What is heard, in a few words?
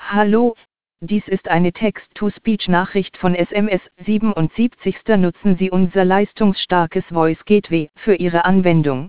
text2speech example